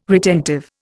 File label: "retentive", Indian